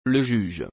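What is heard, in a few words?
"le juge", French; le juge (m.); قاضی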